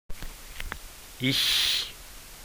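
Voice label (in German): "ich", German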